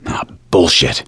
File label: reaction negative, voice; gambler